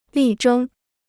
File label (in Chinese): "力争", Chinese